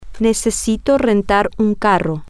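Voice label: I need to rent a car, Spanish, female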